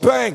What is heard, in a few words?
"bang", ooh ahh